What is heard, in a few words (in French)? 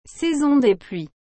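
"saison des pluies", French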